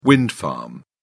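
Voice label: "wind farm", British English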